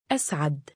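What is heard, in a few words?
"2as3ad" male, Arabic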